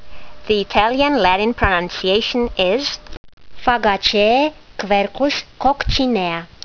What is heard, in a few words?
latin; Quercus coccinea